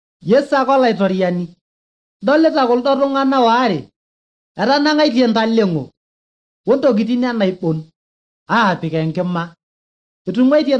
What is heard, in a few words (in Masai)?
maasai bsv nt drama; good news; bible